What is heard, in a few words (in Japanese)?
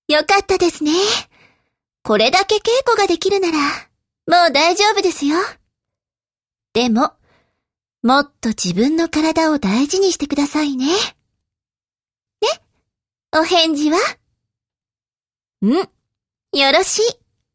f honwaka